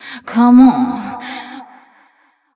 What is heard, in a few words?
fem spo, Vocals